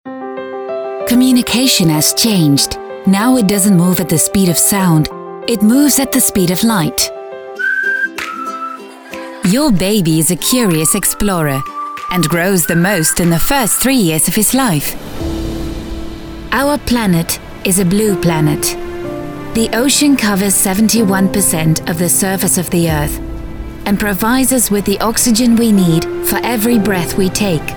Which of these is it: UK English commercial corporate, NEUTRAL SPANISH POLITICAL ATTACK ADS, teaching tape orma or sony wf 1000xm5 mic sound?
UK English commercial corporate